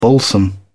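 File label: "balsam", British English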